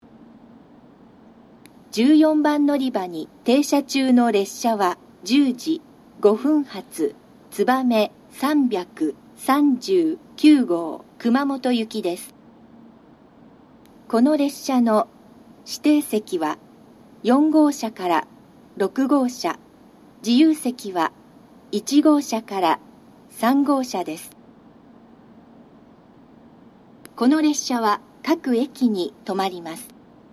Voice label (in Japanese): 14番のりば停車中放送, 新幹線ホーム; 新鳥栖; つばめ339号 熊本行き; 熊本で「みずほ603号 鹿児島中央行き」に接続